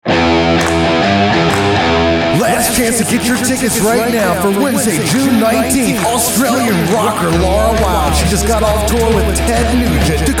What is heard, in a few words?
Commercial, Fuel Spot; BlueFox